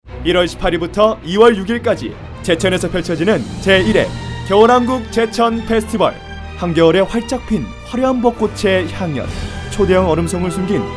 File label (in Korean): CM 라디오; 제 1회 겨울왕국 제천 페스티벌; 카피안